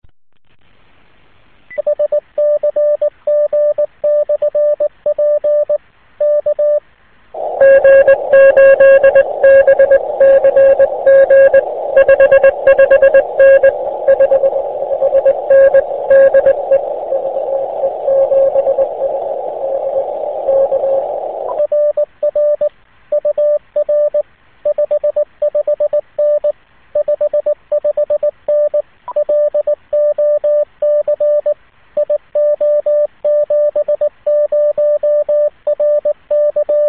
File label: qso